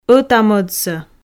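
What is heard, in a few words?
"ӧта-мӧдсӧ" Komi, female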